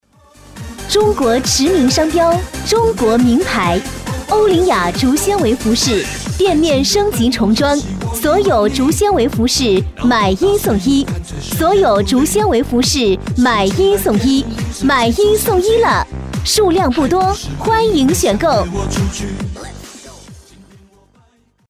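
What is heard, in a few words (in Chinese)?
女（激情）; 家电卖场; 促销叫卖; 欧林雅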